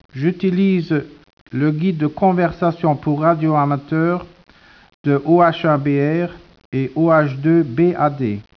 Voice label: speech, French